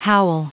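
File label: "howl", English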